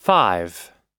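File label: "five", US English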